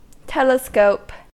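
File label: "telescope", American English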